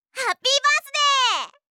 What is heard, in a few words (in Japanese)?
ハッピーバースデー！, ASMR